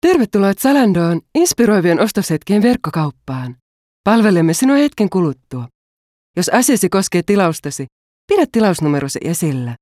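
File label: Finnish telephone; telefon; sprecher; finnisch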